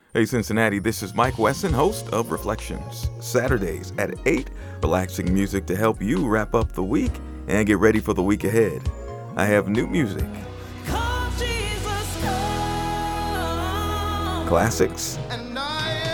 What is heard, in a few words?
Promo; Reflections; new program alert